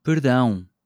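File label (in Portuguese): "perdão!", português europeu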